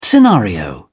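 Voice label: "scenario", British English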